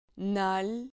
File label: "nal", Spanish syllable